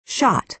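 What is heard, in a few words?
"shot", American English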